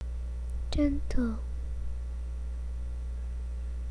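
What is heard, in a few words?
"gentle", spoken word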